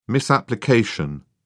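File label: "misapplication", British English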